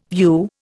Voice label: "view", Indian

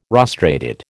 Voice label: "rostrated", American

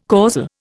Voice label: "causal", Indian

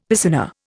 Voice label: "piscina", Indian; Dictionary

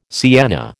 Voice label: "sienna", American

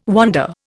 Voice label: "wander", Indian

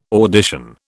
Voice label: "audition", British

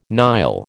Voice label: "Nile", American

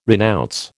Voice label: "renounce", American

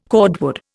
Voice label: "cordwood", Indian

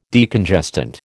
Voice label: "Decongestant", American

